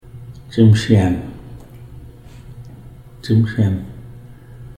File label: "Tsmsyen", Ts’msyen